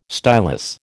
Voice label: "stylus", American

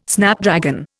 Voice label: "Snapdragon", Indian

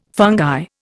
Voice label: "fungi", Indian

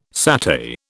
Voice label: "satay", British

